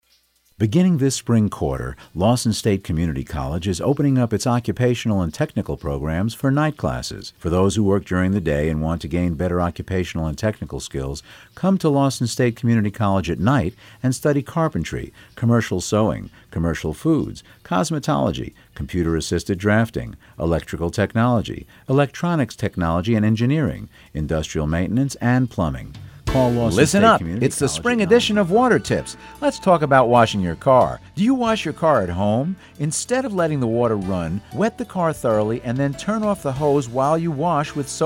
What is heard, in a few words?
narr, male voice talent